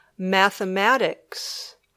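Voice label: "mathematics", English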